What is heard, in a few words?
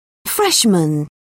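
"freshman", British English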